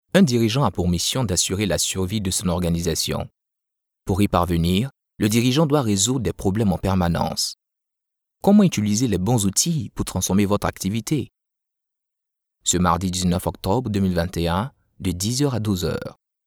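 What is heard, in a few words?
African French, male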